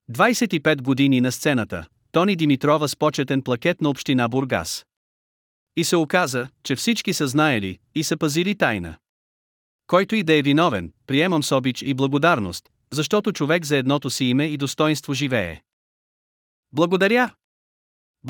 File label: voicemaker in speech